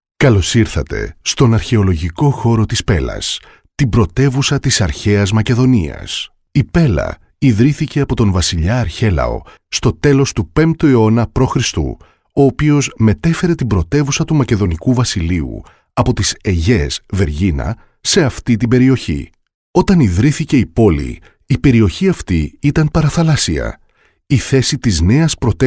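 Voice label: Greek narration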